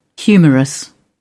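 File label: "humerus", English, British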